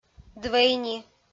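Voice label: "двӧйни", Komi, female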